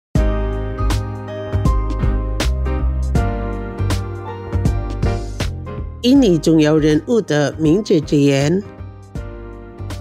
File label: MANDARIN QUOTE; Kata Bijak; LEILA S CHUDORI